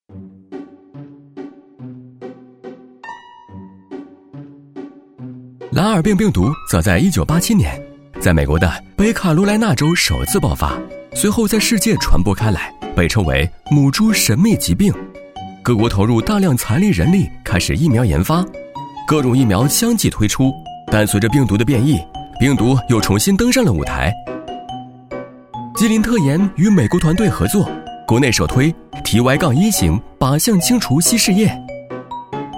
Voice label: 宣传片 病毒 MG动画知识科普介绍, 男; 飞碟

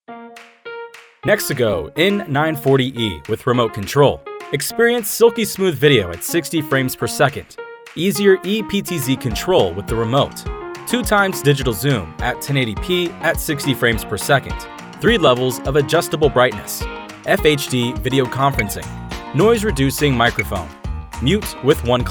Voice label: 美式英语 广告, 外籍男英; 外语

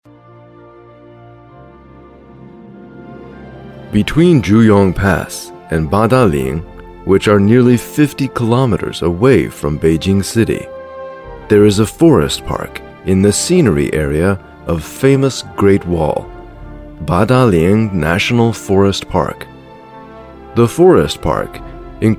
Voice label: 外籍男英 外语 美式英语 浑厚; 八达岭长城

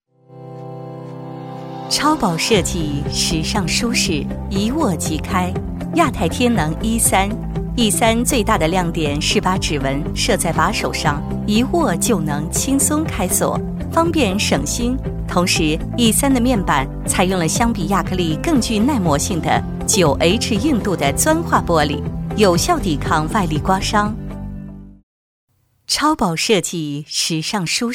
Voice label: 专题 产品 轻松, 女国; 亚太天能 E3

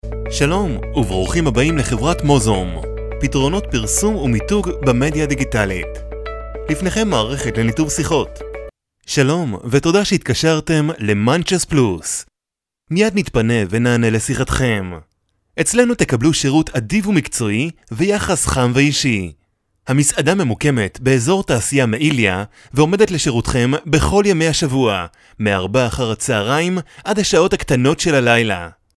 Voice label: 希伯来语男 外语 小语种

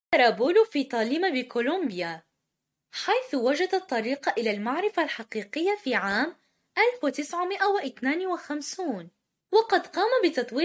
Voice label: 阿拉伯语女 外语 小语种 轻快

